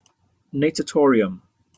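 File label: "natatorium", English, British accent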